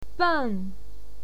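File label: "beng", Mandarin Chinese, fourth tone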